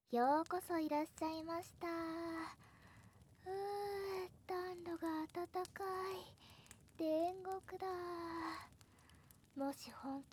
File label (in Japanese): 読み聞かせ耳かき; 耳癒しASMR; オトギノリフレ～雪女～; 「雪女」読み聞かせ耳かき